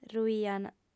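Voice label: "ruiant", Sardinian, female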